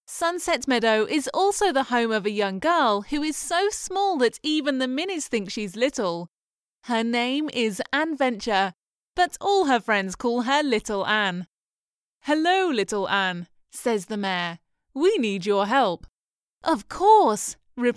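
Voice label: Children s Book with Characters, voiceover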